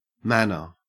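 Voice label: "manor", Australian English; pronunciations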